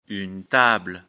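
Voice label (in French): "table", French; afrikalan; lecture fr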